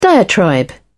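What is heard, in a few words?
"diatribe", British English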